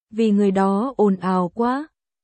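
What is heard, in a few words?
Vietnamese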